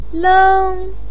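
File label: "leng" first tone, chinese, voice pinyin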